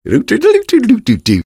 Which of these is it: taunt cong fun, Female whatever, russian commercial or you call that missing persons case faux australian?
taunt cong fun